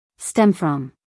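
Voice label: "stem from", American English, female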